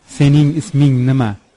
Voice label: name, Uzbek